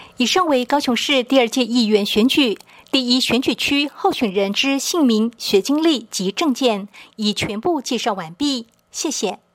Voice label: 國語, 有聲選舉公報; 第一選區

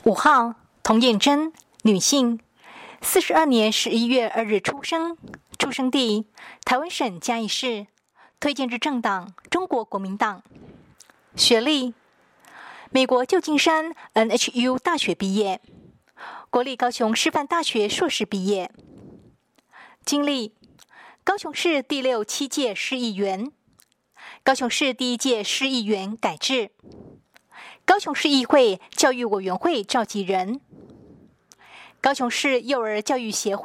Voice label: 國語, 有聲選舉公報; 第七選區

